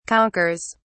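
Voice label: "conquers", British English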